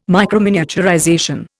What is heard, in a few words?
"microminiaturization", Indian